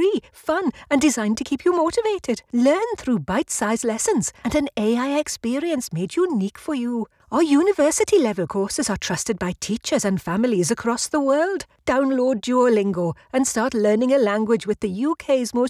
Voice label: Welsh Accent RAW